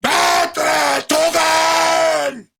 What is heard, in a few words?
Attack Grineer Ghoul Sargeant, en; Grineer Ghoul Drill Sargeant; Battle Barks